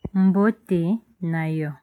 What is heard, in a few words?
hello, lingala